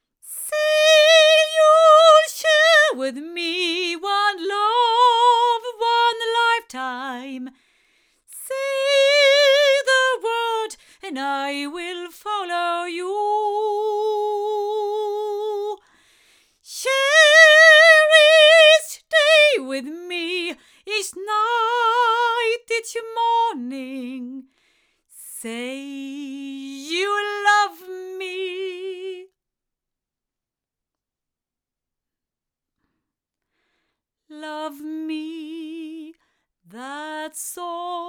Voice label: Rode NT3 female